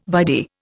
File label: "vide", Indian